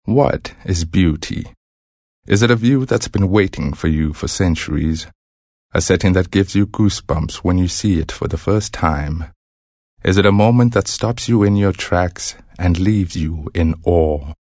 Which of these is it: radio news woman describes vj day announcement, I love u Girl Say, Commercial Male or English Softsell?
English Softsell